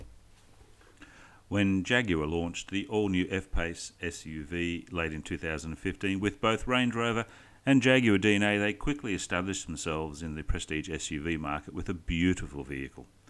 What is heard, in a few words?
Radio Segment; Jaguar F-Pace